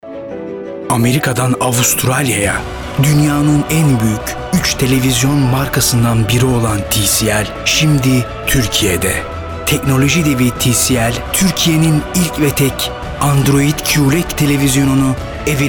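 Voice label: Turkish, male, commercial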